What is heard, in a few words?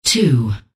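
"two", English; hl2dm; unreal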